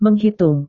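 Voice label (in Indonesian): "menghitung", Indonesian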